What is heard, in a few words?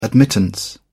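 "admittance", British English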